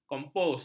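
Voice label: "kompos", Malay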